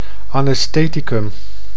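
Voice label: "anestheticum", Dutch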